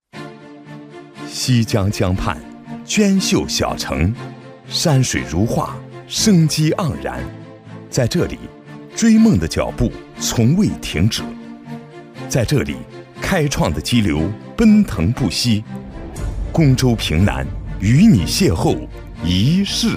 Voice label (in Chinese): 男, 党政专题; 平南